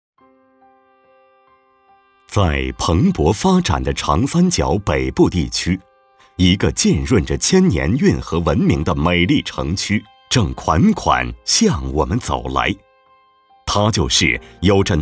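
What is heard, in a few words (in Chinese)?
男【宣传】城市形象; 清江浦区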